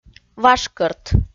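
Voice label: "важ кӧрт", Komi, female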